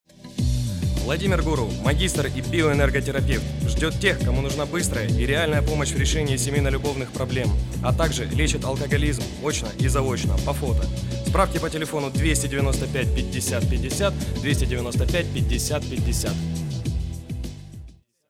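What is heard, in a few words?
advert; reklama amstor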